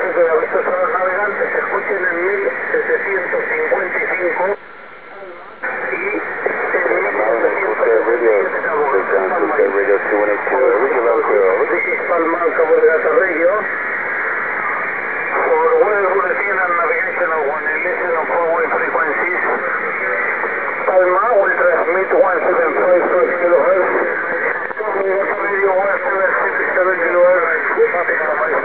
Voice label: malin head radio check st johns 2182